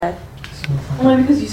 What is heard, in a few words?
Tavern EVP